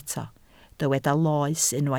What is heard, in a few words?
"loes", Welsh